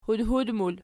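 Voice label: "hudhud" plural, Archi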